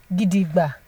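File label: "gidigba", Nigerian Pidgin, female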